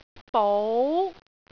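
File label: "bou2", Cantonese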